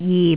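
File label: "jip6", Jyutping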